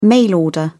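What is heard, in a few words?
"mail order", British English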